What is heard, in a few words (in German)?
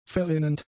"verrinnend", german; adjectives